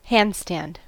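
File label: "handstand", US English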